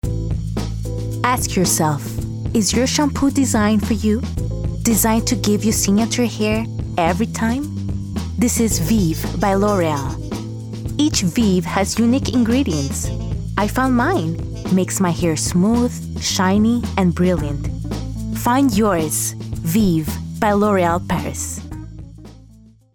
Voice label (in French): demo voix english